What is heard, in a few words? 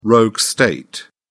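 "rogue state", British English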